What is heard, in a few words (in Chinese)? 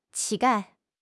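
"乞丐", Chinese; HSK6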